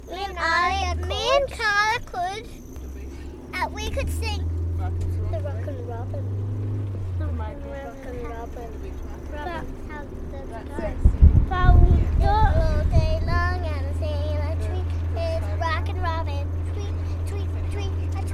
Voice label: Darwin Australia Young Girls; australia-oceania